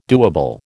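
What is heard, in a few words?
"doable", American English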